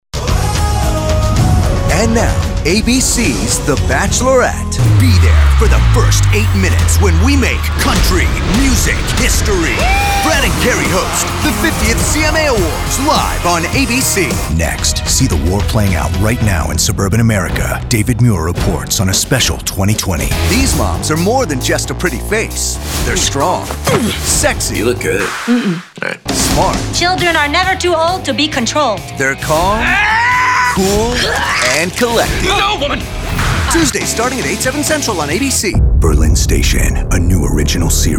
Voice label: Promo